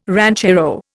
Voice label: "ranchero", Indian

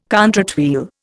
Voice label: "contrate wheel", Indian

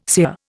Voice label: "sear", Indian

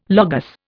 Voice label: "Logos", Indian

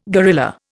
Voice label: "gorilla", Indian

